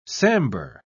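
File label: "sambur", British English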